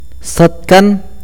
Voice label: "cielo", akateko

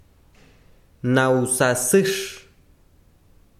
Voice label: "corazon", besiro, chiquitano

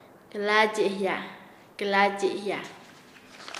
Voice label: aflojar, Nasa Yuwe; paez

